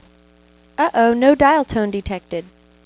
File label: No Dial Tone (Female)